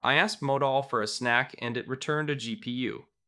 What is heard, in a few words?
Steady and technical, a low-friction choice for operational or engineering content.; steadytechnicalmatter-of-fact